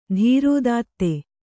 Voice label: "ಧೀರೋದಾತ್ತ", Kannada

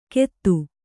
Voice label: "ಕೆತ್ತು", Kannada

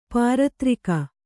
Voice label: "ಪಾರದರಿಗೆ", Kannada

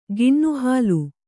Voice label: "ಕೆನೆಹಾಲು", Kannada

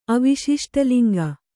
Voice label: "ಅವಿಶಿಷ್ಟಲಿಂಗ", Kannada

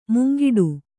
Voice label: "ಮುಂಗಡೆ", Kannada

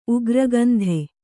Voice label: "ಉಗ್ರಗಂಧ", Kannada

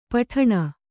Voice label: "ಪೀಡನ", kannada

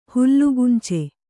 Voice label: "ಹುಲ್ಲುಗುಂಚೆ", kannada

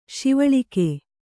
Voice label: "ಸವಲಕ್ಕ", kannada

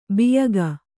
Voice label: "ಬೋಯಿಕೆ", Kannada

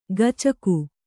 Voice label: "ಗಚಕು" Kannada; gacaku; noun; soft mud; soggy earth; mire; slush.

